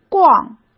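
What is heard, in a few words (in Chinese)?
"逛", Mandarin Chinese; chinese flashcards; hsk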